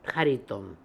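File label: "Hariton", pronunciations; Fourth Way; Beelzebub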